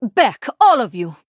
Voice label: Female Draenei; CONDEMN LEVEL 1